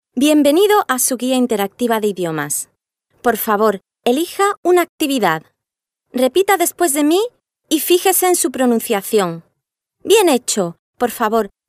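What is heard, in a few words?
Spanish female corporate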